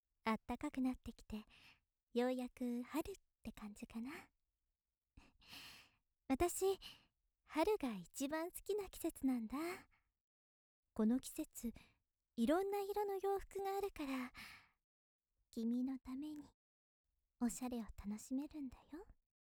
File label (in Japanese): 優しいお姉さん 春だね